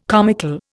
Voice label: "comical", Indian

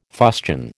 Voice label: "fustian", American

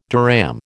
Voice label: "dirham", American

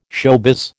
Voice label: "showbiz", American

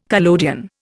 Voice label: "collodion", Indian

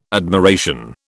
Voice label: "admiration", British

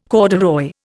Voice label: "corduroy", Indian

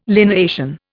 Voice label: "lineation", Indian

